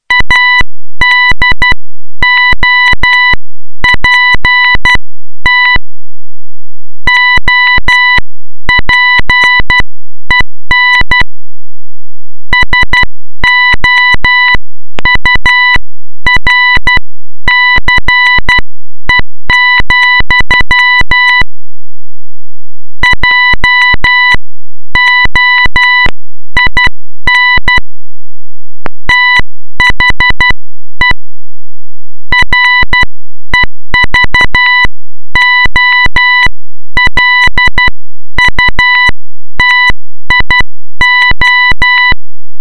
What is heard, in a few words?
Morse Podcast